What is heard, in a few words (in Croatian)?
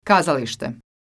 "kazaliste", croatian